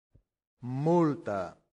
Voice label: "multa", latin; santillana